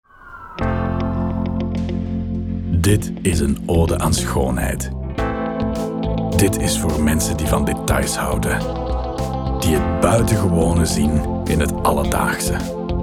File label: Commercial; Flamant